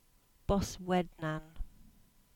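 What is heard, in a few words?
"Boswednan", English; place-names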